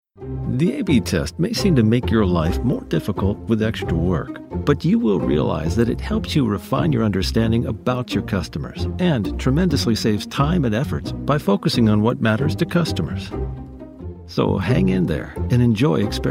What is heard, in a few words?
american